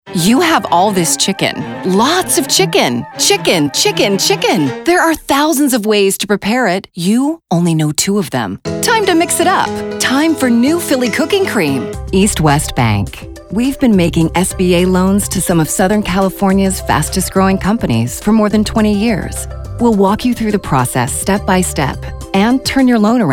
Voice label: vo commercial